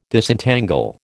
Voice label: "Disentangle", American